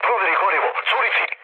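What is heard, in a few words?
Serbian tank damaged mood low; War Thunder; speech srb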